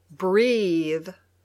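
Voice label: "breathe", English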